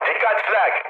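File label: damaged aa, mood med, Turkish; speech; War Thunder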